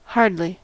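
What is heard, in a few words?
"hardly", US English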